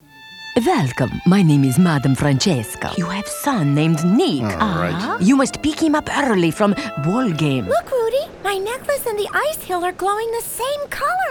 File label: Character Voices